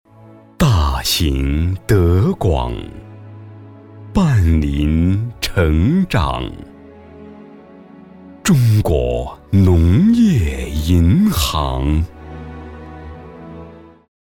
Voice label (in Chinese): 男 品牌广告; 农业银行